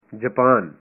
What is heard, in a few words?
"Japan", punjabi